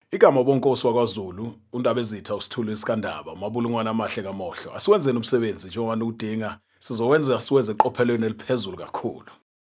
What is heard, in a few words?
male zulu voice over talent narrative